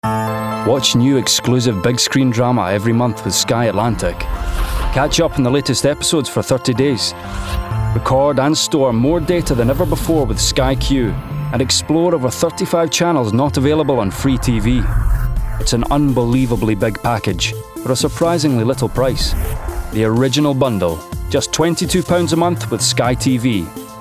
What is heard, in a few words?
Commercial; Sky TV; Commercial Showreel